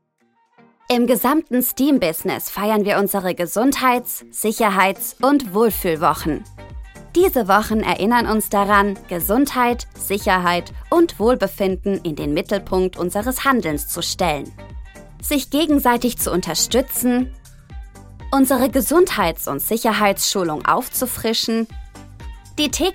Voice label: german corporate videos